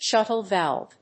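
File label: "Shuttle valve", English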